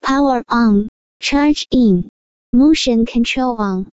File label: voice woman